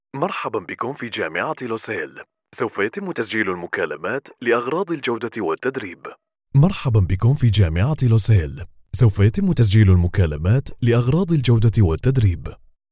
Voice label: arabic ivr

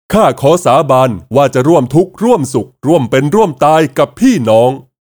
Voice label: Thai video games

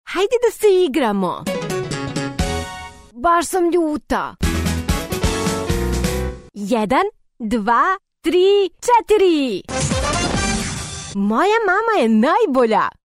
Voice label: Serbian animation

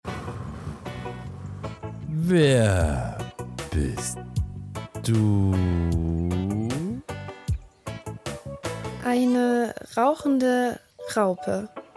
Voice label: german

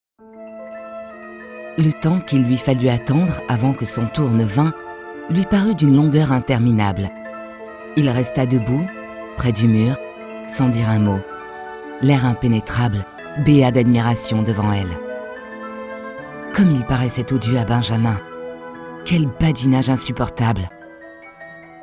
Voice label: french audiobooks

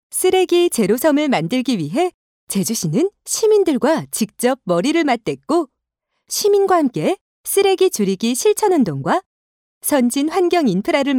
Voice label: korean commercial

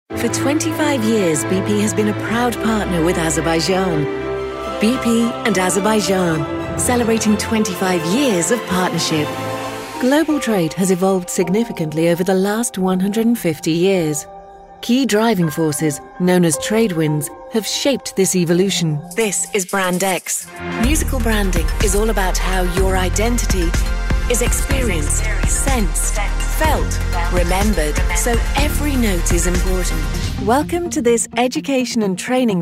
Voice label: english british narration; Eine britische Sprecherin mit anspruchsvollem, aber dennoch persönlichem Stil.

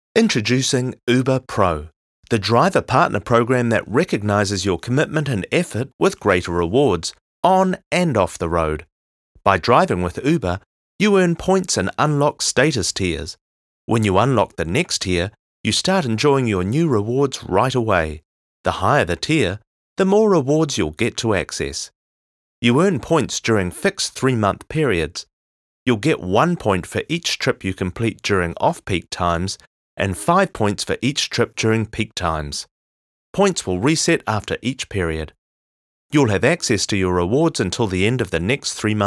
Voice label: english new zealand e-learning

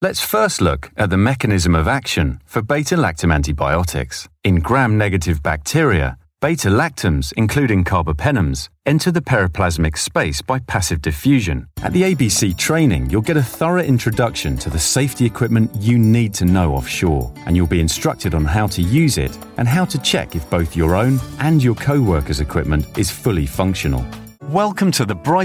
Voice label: english british e-learning

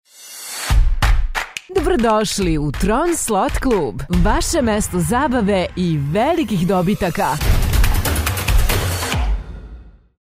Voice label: Serbian video games

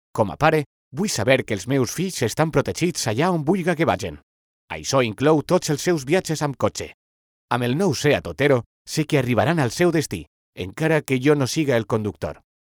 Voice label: catalan promos

